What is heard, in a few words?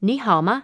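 how are you, phrases, chinese; Aristosoft, Inc.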